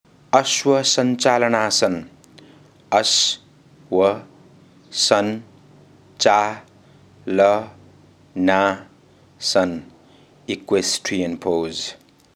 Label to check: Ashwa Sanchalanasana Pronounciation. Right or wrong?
Right